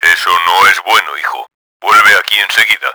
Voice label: angry, Spanish; kramer; Battle Engine Aquila; XBOX